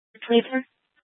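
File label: Tempe Police Department, scanner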